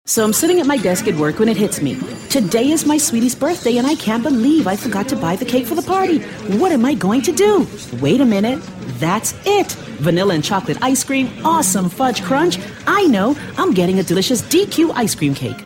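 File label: English Bahamas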